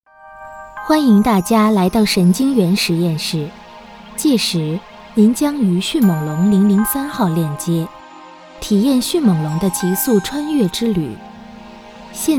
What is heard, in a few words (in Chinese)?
解说 科技馆智能解说 温柔甜美